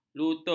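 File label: "lutut", Malay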